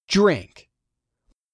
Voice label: "DRINK", English; 3000 words